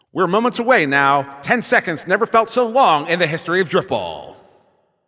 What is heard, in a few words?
10 Seconds To Game Start, Announcer